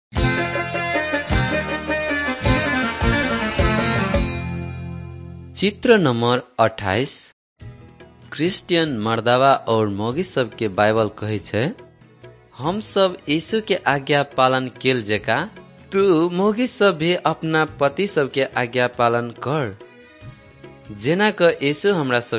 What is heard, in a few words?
maithili thetiya